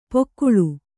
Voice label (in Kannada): "ಪೊಕ್ಕುಳು", Kannada